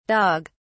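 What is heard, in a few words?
"dog(s)", British English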